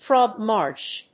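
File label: "frog-march", English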